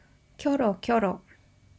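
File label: "kyoro kyoro", Japanese; キョロキョロ – kyoro kyoro; Looking around curiously.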